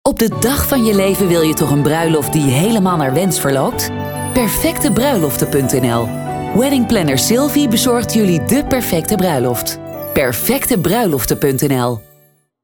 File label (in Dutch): commercial